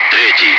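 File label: Russian calm; Wingman; DCS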